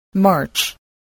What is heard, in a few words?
"march", spelling voice